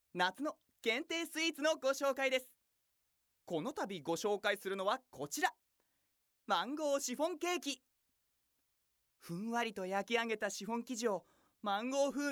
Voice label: narration